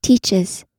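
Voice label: "teaches", US English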